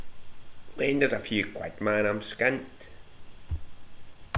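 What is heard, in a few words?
"lend", slang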